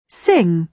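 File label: "sing", British English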